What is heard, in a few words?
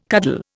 "cuddle", Indian